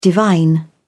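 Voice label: "divine", British English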